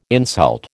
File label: "insult", American